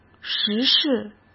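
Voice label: "时事", Mandarin Chinese; chinese flashcards; hsk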